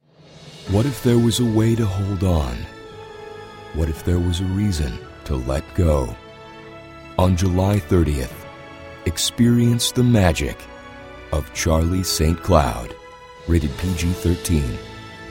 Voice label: trailer